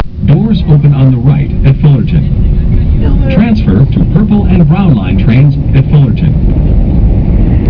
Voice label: Fullerton doors, automated